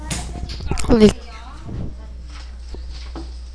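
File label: "lick", spoken word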